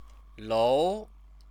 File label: "lou", Chinese